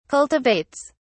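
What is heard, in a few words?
"cultivates", British English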